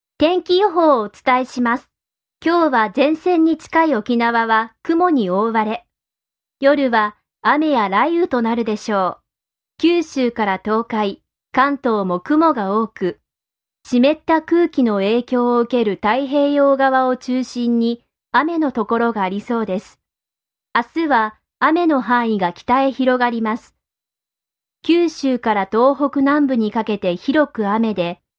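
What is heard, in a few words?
Japanese, female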